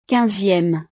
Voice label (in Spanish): "quinzieme", frances